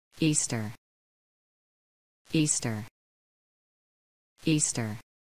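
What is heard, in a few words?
Easter American pronounce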